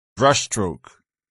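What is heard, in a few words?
"brushstroke", English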